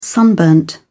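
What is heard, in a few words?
"sunburned", English, uk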